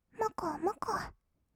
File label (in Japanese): "もこもこ", kawaii voice; 1200 kawaii voice!!; 色々なワンショット